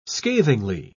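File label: "scathingly", British English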